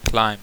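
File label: "climb" English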